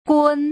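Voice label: "gun", Putonghua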